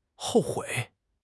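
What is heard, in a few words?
"hou4 hui3", Mandarin Chinese; HSK4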